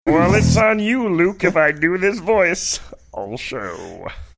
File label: saying well it's on you if i do this voice all show funny